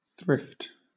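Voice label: "thrift", British English; pronunciations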